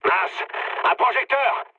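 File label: searchlite, mood low, French; War Thunder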